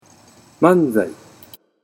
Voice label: "manzai", Japanese

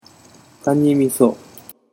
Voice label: "kanimiso", Japanese

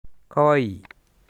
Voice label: "kawaii", Japanese

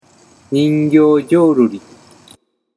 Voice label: "ningyojoruri", Japanese

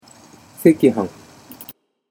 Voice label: "sekihan", Japanese

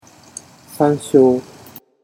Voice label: "sansho", Japanese

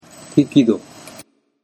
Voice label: "hikido", Japanese

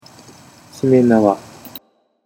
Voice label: "shimenawa", Japanese

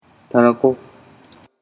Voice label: "tarako", Japanese

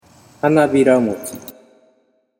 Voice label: "hanabiramochi", Japanese

